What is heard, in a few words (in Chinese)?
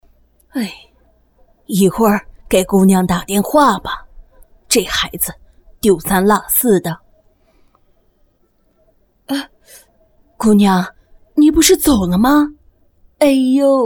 女 人物模仿 50岁 情景广告, 国语女声配音